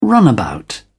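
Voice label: "runabout", British English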